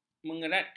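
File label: "mengeratkan", Malay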